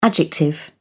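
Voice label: "adjective", British English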